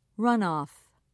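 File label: "runoff", British English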